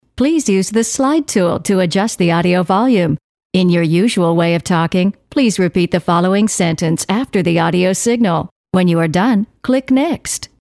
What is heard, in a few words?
usenglish